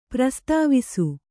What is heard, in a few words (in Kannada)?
"ಪ್ರಸ್ತಾವಿಸು", Kannada